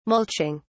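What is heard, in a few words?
"mulching", British English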